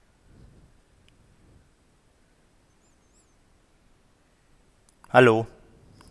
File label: headset audio, logi b530